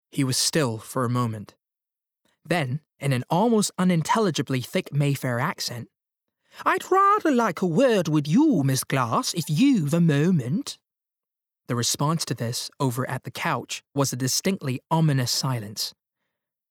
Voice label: US Bookread; Franny & Zoe